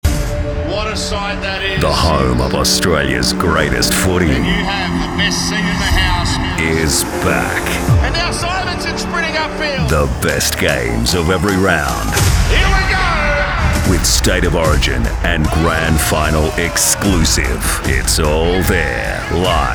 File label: TV Promos